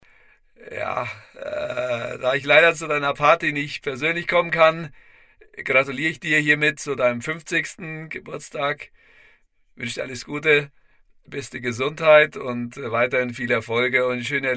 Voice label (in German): Stimmenimitator; Thomas Mueller